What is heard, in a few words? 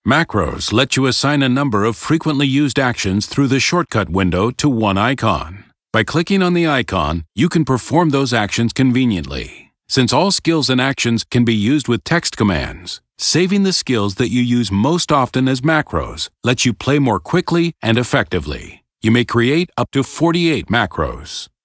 tutorial voice; lineage2